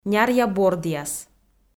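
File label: "няръя бордъяс", Komi, female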